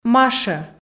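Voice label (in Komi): "машӧ", Komi, female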